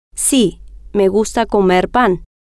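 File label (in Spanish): si Me Gusta Comer Pan, spanish, female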